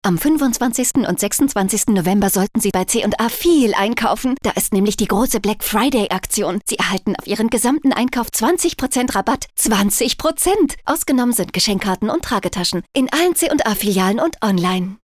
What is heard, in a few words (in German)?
funkspot